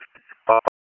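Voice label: Public Safety Tactical, p25; wake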